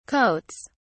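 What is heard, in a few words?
"coats", British English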